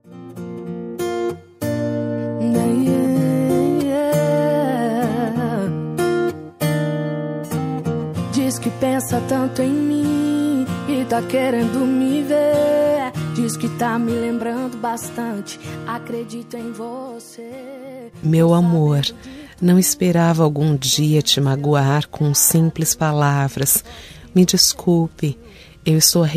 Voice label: DESCULPAS F, Voz Feminina; Telemensagem de Desculpas

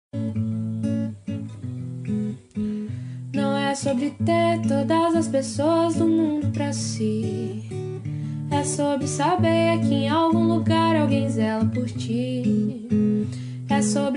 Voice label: mulher geral fem, Voz Feminina; Dia das Mulheres Neutra; Telemensagens

